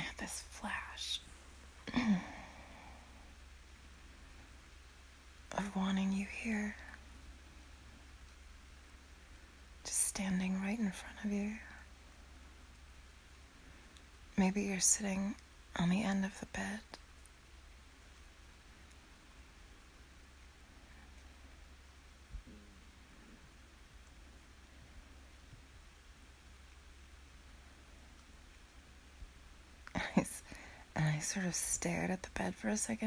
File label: Rainy evening in our bedroom, intimate, ramble, relaxed; F4M